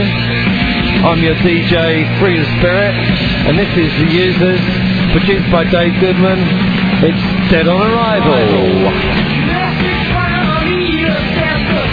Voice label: Punk Radio